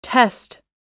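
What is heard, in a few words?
"test", English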